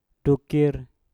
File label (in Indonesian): "dukir" dua, Mpur